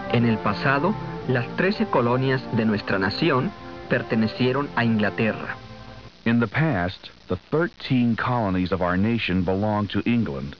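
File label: spanish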